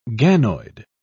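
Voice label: "ganoid", English, British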